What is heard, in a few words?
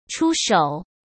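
"出手", Mandarin Chinese; chinese-flashcards; hsk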